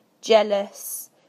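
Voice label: "jealous", British English; PictureDictionary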